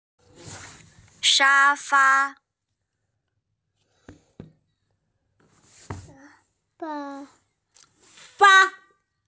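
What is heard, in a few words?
"sha fa", Mandarin Chinese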